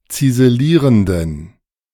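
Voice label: "ziselierenden", German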